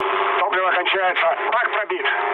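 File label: tank damaged, mood low, Russian; War Thunder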